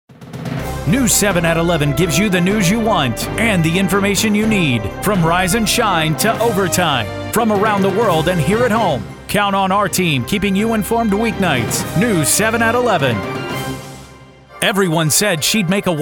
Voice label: TV Promo